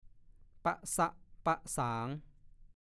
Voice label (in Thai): "ปะซะปะสาง", ภาษาโคราช; ประพรมน้ำมนต์ไล่สิ่งไม่ดีให้ออกไป